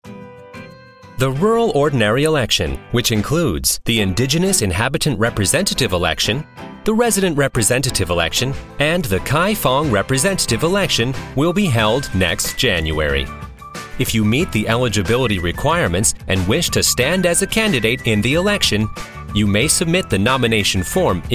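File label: English radio; Radio Announcement; Nomination Period; Publicity Materials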